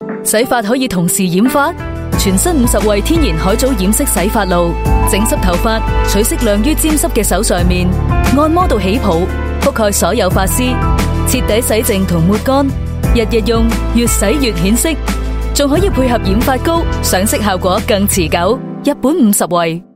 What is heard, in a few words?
F Cantonese